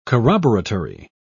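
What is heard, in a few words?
"corroboratory", British English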